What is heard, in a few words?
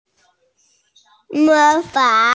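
"imitate", hearing impaired; mo2fang3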